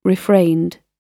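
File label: "refrained", British English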